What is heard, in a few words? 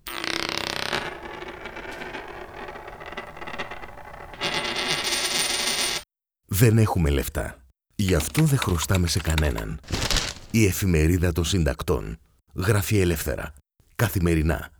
money radio; efimerida syntakton